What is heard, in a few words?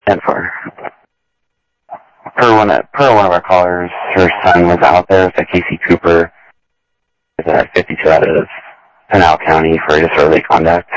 South Scottsdale Sheriff, scanner audio